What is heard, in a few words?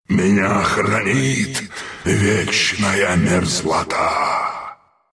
respawn, ru; Lich; Dota 2